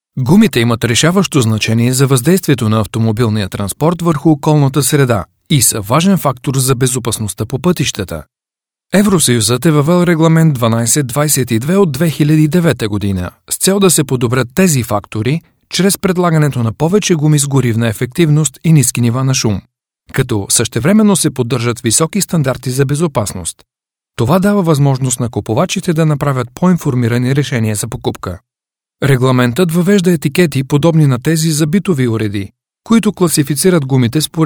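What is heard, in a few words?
Bulgarian, male; lektoring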